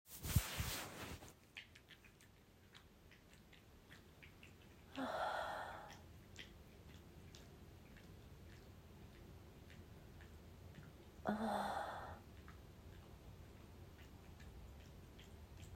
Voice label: A Spanglish Ramble Ramblefap Moaning Wet Sounds Bilingual, F4M